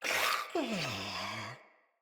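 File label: Death Corrupted Sprouts, en; Dialog; Battle Barks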